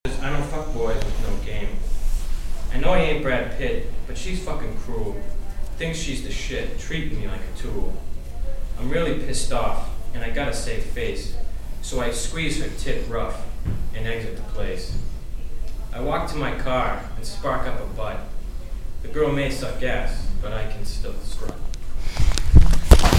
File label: imitation reading